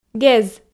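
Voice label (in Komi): "гез", Komi-Zyrian, female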